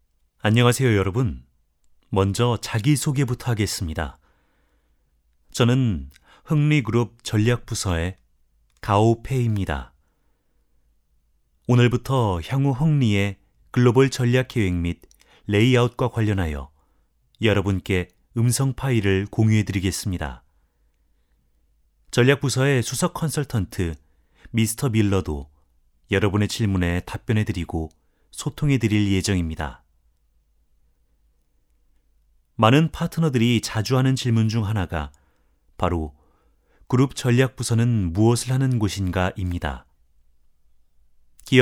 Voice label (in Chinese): 行业趋势 新闻播音感